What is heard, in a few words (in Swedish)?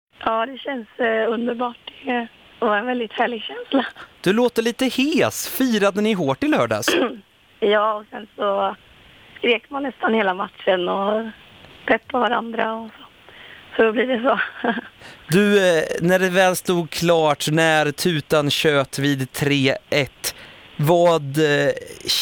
Intervju efter finalen